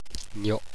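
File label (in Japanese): "nyo", Japanese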